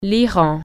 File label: iran, french